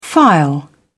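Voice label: "file", English, British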